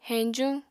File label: "heñjuñ", Apatani